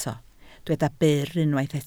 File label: "byr", Welsh